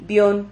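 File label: "bion", Spanish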